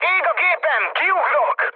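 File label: on fire, mood med, Hungarian; speech; War Thunder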